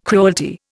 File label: "cruelty", Indian